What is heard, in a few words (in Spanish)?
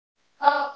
Voice Acting es F0